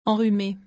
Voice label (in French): "enrhumé" French; vocabulary; doctors office